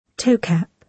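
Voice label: "toecap", British English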